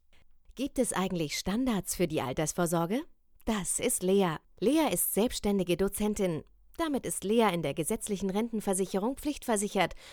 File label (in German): erklaerfilm, Sprecherin